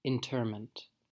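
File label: "interment", US English; pronunciations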